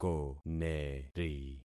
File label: "koneri", concat syllables; Affixing experiment